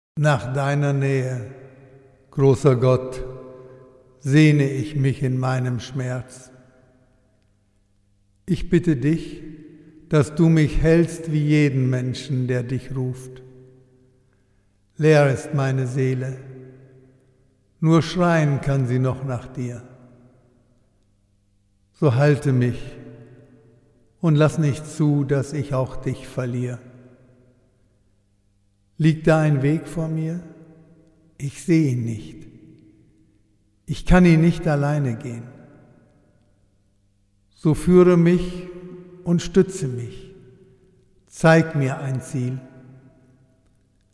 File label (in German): psalm25 meditation; trauergedichte gelesen